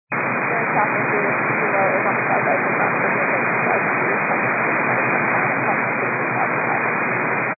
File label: 122 925MHz, scanner radio